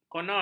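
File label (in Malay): "konon", Malay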